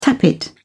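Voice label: "tappet", British English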